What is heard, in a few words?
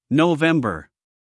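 "November", US English, male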